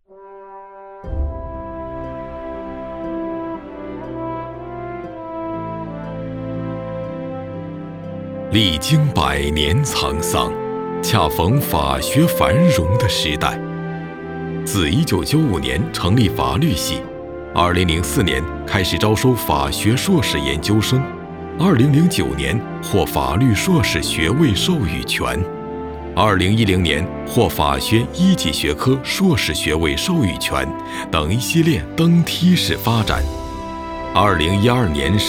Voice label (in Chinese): 宣传片 学院类 回忆 大气 讲述